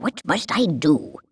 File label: Female; baldurs1